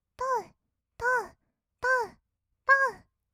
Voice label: とうとう, kawaii voice; ASMR; 合いの手; 1200 kawaii voice!!

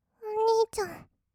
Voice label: おにいちゃん, kawaii voice; ASMR; 二人称; 1200 kawaii voice!!

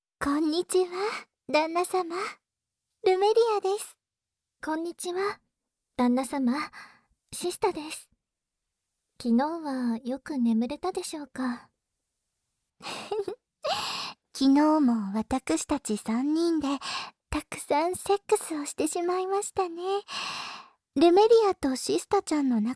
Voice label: 耳かき, 効果音無し

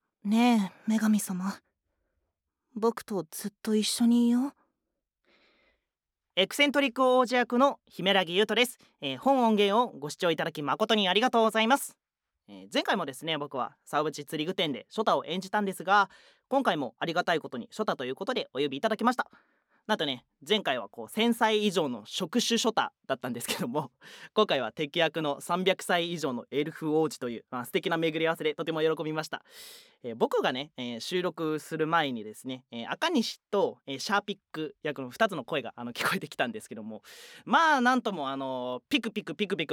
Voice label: フリートーク, ASMR

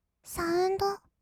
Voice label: "サウンド", kawaii voice; ASMR; 1200 kawaii voice!!; 音ゲー

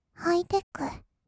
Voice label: ハイテック, kawaii voice; ASMR; 音楽ジャンル; 1200 kawaii voice!!

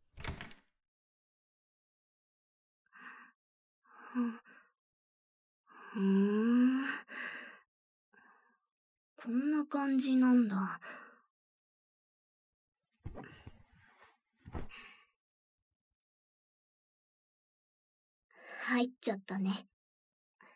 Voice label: 励ましささやき♪; ASMR; 花凛の寂しさを埋め合わせる

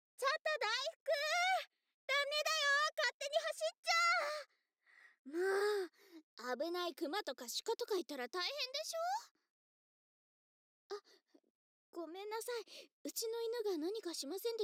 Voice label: ようこそ 声のみ

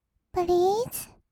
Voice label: "プリーズ", kawaii voice; ASMR; 1200 kawaii voice!!; 音ゲー

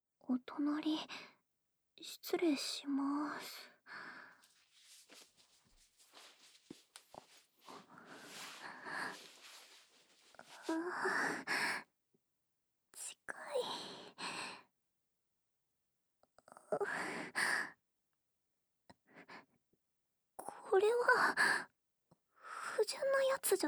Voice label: 寝かしつけ; ASMR

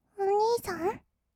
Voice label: お兄さん, kawaii voice; ASMR; 二人称; 1200 kawaii voice!!

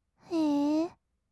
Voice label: "へー", kawaii voice; ASMR; 色々なワンショット

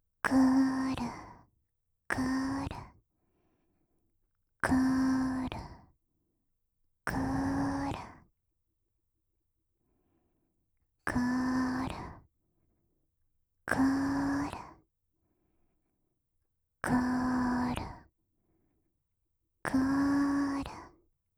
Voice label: ロリ クルクル ゆっくり, オノマトペ, 右耳; 乳首オナ指示でカリカリタイムちょっぴりハードモード

